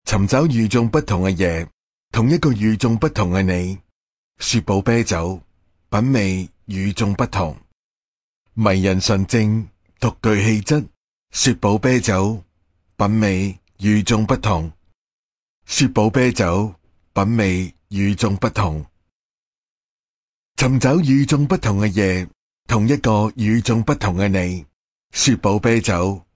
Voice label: 男 广告 啤酒感情

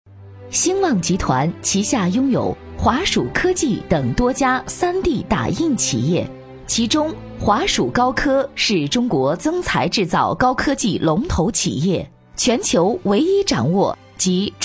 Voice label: 女4号专题; 兴旺集团

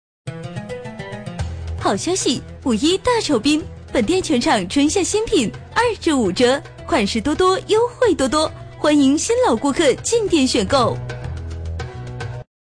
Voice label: 促销 好消息

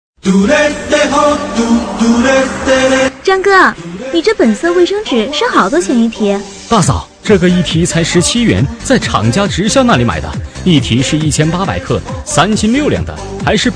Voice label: 男女促销 本色纸; 【男女促销】本色纸-女31+男08

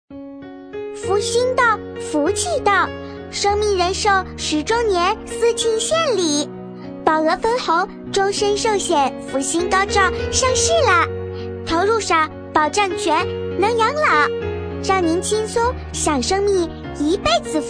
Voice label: 女 童音 小可爱童声

